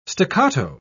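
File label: "staccato", English word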